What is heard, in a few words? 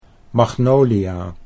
"magnolia", Dutch